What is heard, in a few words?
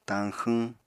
"tañxwñ", apatani